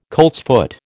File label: "coltsfoot", American